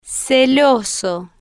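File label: "celoso" Spanish, female, slow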